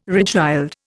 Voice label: "regild", Indian

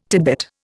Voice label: "titbit", Indian

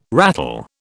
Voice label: "rattle", British

